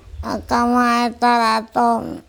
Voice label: "akamaitaratom BoaSr." old man, andamanese